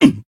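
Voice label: damaged, Japanese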